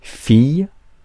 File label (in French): "fille", francais